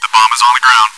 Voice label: chitchat; svencoop